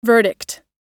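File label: "verdict", American English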